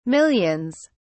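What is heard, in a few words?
"millions", British English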